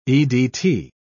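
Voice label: "edt", British English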